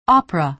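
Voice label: "opera", American English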